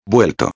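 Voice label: "vuelto", Spanish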